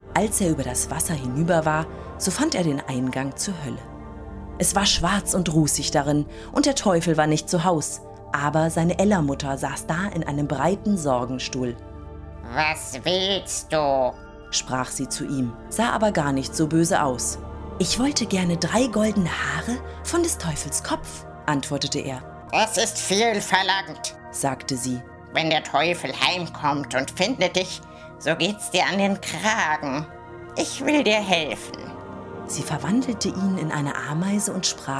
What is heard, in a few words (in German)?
Hoerbuch Maerchen